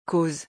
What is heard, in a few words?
"cause", French